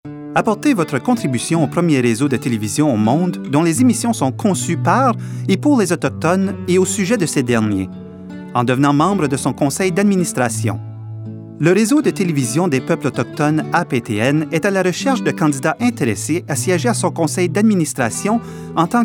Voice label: FRENCH